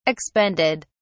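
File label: "expended", English, British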